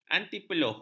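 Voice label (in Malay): "antipeluh", Malay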